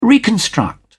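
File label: "reconstruct", British English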